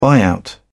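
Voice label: "buyout", English, British English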